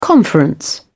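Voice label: "conference", British English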